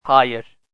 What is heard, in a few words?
"hayir", turkish